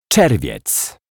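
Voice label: "Czerwiec", Polish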